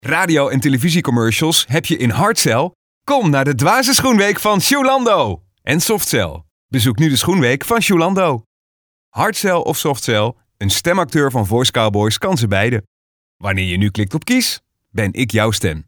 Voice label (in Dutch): commercials